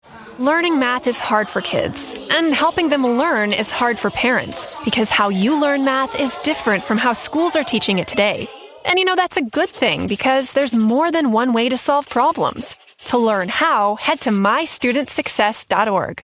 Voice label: Explainer; My Student Success; Warm, Approachable, Caring - Student Success Resource